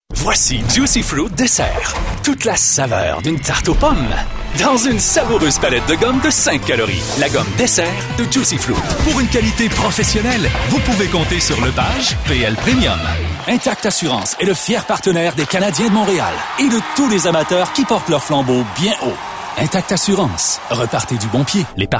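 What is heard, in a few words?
French Canadian, male; voice over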